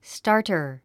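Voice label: "starter", English word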